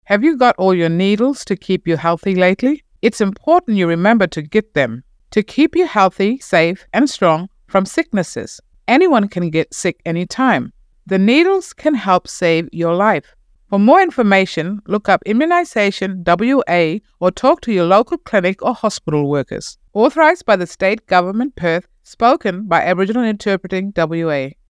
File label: Immunisation Plain English